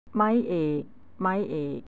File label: tone1, thai; วรรณยุกต์